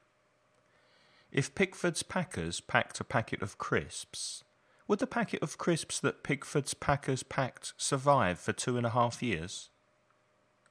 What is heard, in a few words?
tongue twisters trabalenguas read listen repeat if pickford s packers packed a pack